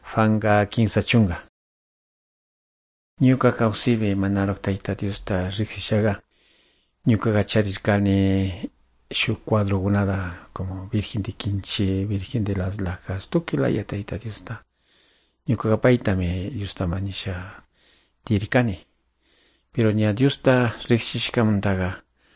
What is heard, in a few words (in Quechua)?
good news quichua de calderon